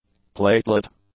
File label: "platelet", English